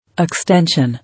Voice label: "Extention", English